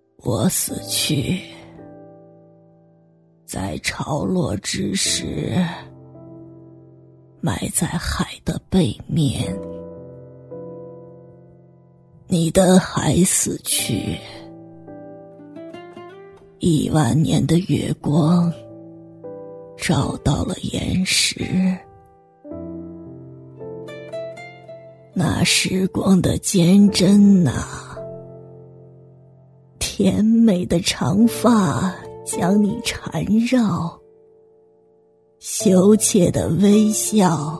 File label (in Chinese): 女, 模仿, 老奶奶80-90岁